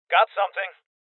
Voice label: Voices Loot Collect Male Young; rebel cops 2019

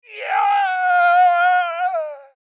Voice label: Voices Injury Male Old

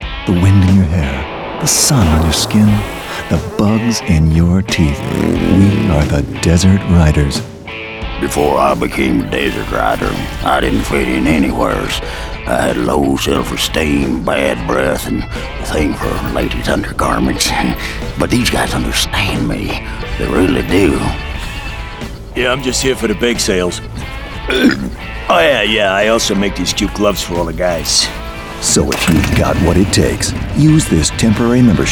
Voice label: Commercial; Desert Riders

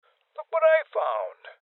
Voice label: Voices Loot Spotted Male Old; rebel cops 2019 gamerip

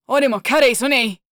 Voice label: VO angry

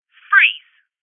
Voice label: Voices Freeze Female

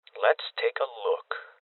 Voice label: Voices Open Safe Male Young; rebel cops 2019

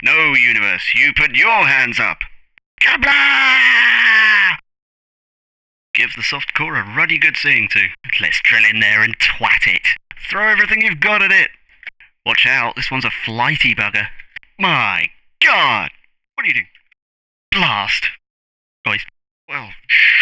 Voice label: Narration; Really Big Sky

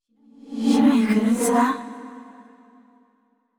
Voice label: surprised; yamiko

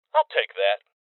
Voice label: Voices Loot Collect Male

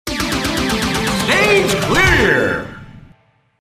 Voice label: Stage Clear (Male); arcade; Dance Super Station

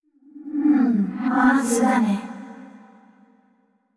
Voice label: surprised; VO yamiko

